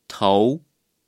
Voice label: "頭", Chinese

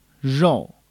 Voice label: "肉" Chinese

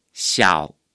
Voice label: "小", Chinese

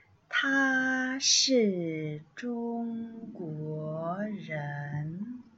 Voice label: 他是中國人-小聲

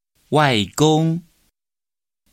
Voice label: "外公" Chinese

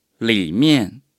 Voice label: "裡面", Chinese

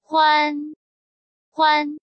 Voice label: "歡" Chinese

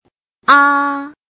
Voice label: "ㄚ", Chinese

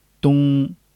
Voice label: "東" Chinese

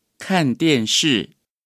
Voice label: "看電視" Chinese

